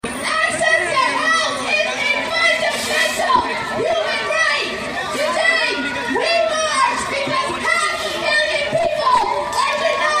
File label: rally speech; noii health for all